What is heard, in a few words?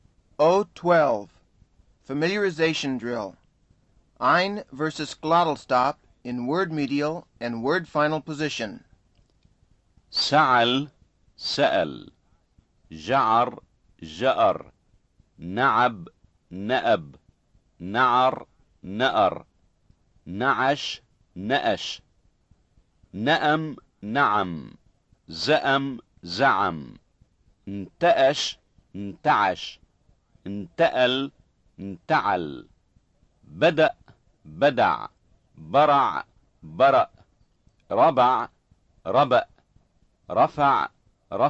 Levantine Arabic Pronunciation; FSI